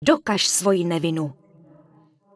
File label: Night Elf Female err not equippable; wowpreklad; czwow